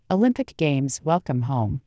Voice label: improved conversational female